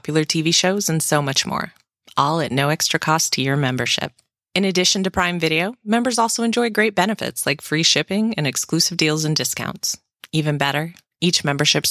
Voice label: Dry Voice Amazon Ad